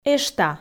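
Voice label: "эшта", Komi, female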